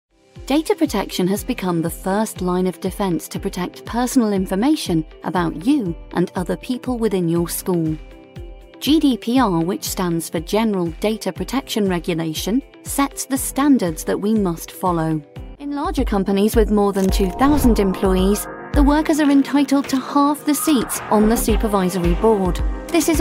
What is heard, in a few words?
english british corporate videos